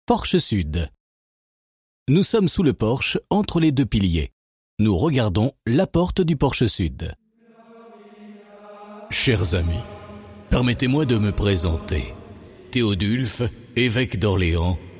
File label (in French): audioguide oratoire carolingien de germigny